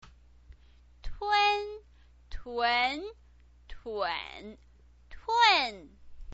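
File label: "tun", Mandarin Chinese pinyin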